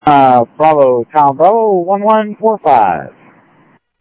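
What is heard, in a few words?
Sheriff East County, scanner audio trunk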